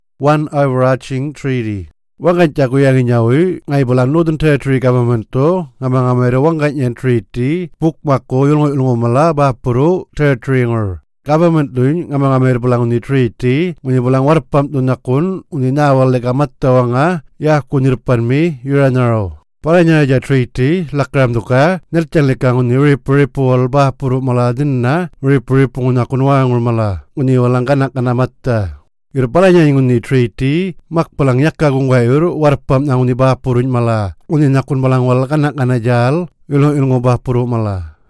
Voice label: Yolngu Matha; One overarcging Treaty